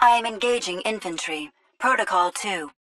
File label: titan Vanguard auto Engage Grunt; Titanfall 2